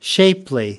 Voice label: "shapely", us

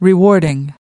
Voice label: "rewarding", us

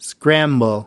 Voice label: "scramble", us

